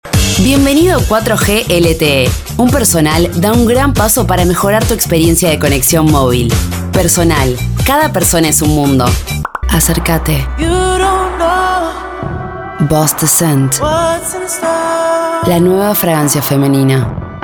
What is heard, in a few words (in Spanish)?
rosario rioplatense